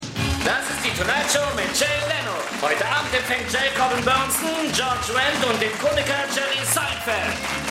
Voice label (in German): Ansager, Stimmproben; Seinfeld